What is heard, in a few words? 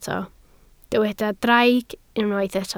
"draig", Welsh